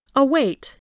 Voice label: "await", english

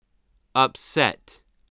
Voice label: "upset", English

